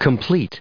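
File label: "completeness", US English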